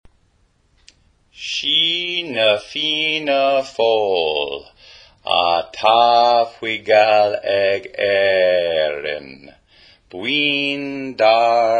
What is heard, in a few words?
Soldier's Song - Gaelic Spoken